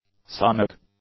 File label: "sonic", english; adjectives